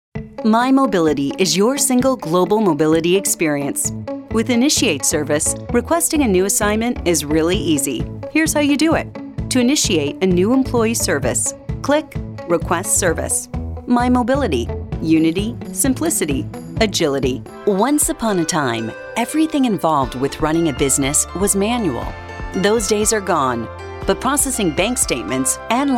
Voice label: Explainer Videos